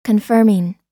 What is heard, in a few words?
confirming, us